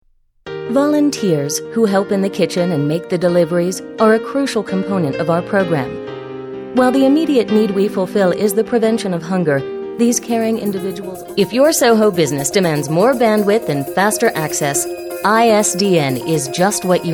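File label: narration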